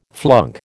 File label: "flunk", American